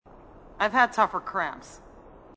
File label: female marine